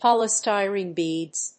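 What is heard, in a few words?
"polystyrene beads", English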